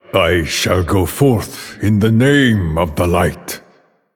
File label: Male Draenei Bark; Prophet Velen